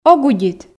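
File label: "ог удит", Komi, female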